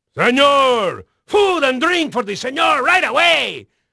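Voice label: Spanish male, voice; Pirates Of The Caribbean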